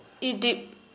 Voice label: "night", Kharia